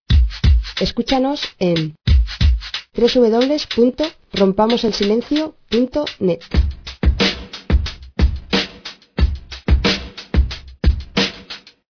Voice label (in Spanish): mujer; rompamoselsilencio